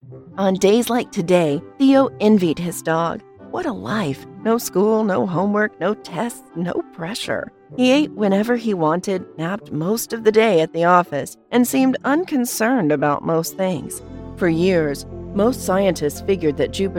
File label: K12 E Learning